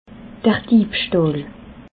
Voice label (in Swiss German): "diebstahl", alsace